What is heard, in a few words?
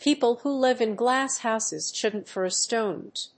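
"People who live in glass houses shouldnt throw stones.", English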